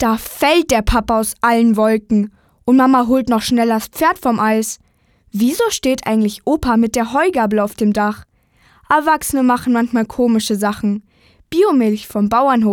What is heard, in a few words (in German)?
maedchen; Biomilch